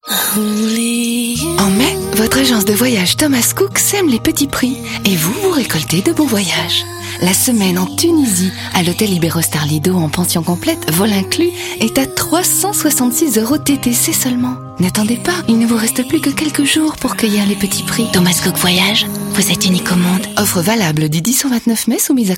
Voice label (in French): radio voyage, jeune tendre; thomas cook